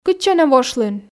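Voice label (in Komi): кытчӧ нӧ вошлін, Komi, female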